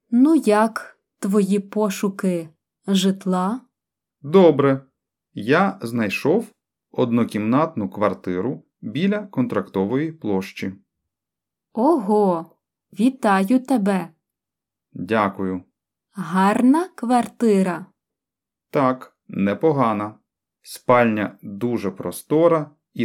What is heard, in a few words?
Dialogue Slow